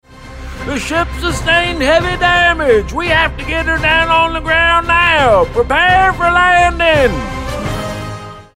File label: male american english movie comercial